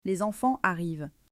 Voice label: "Les enfants arrivent", French